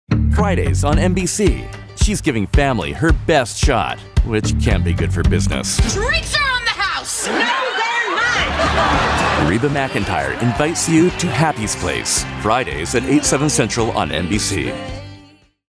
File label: PROMO, Warm · Inviting; Promo Demo Clips; Happy's Place